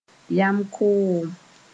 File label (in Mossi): "yam-koom", moore